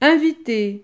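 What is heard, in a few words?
"inviter", French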